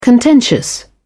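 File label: "contentious", British English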